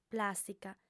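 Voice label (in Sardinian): "plàstica", female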